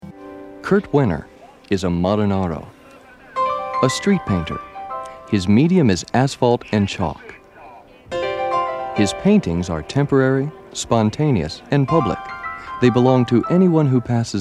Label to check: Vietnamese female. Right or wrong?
Wrong